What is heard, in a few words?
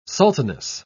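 "sultaness", British English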